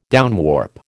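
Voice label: "downwarp", American English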